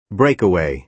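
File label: "breakaway", American English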